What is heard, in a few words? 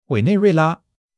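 "Wei3 nei4 rui4 la1", Chinese; HSK1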